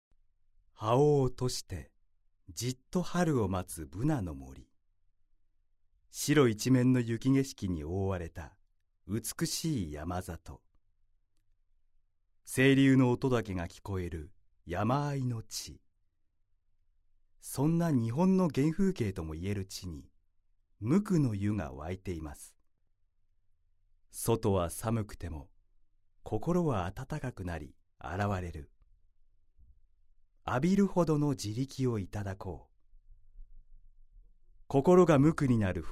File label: narration